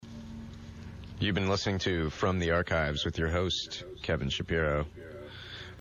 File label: radio banter; Festival 8